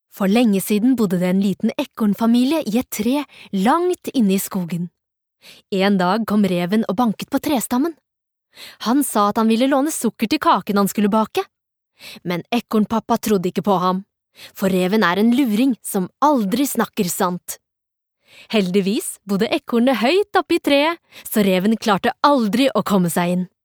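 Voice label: Norwegian childrens book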